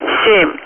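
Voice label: Speech, Russian, air traffic control; Digits; DCS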